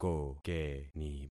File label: "kokeni", concat syllables; Affixing experiment